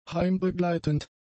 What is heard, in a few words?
"heimbegleitend", German; adjectives